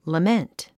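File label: "lament", English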